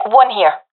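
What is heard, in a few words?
sees one enemy, professional female; vo; Counter Strike Model Pack; CS:GO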